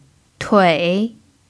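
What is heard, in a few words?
"tui3", Mandarin pinyin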